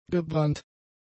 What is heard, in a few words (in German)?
"gebrannt", German; adjectives